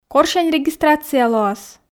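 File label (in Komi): корсянь регистрация лоас, Komi, female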